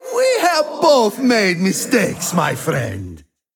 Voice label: Male Ethereal Evil Oops